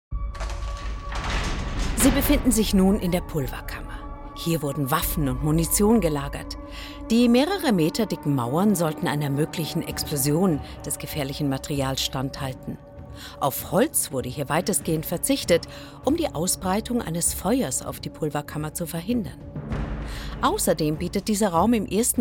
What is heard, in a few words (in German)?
Audioguide Rittermseum